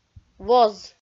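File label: "воз", Komi, female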